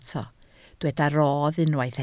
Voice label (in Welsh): "rodd", Welsh